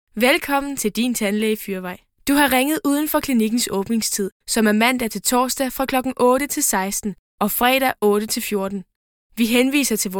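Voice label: Danish, IVR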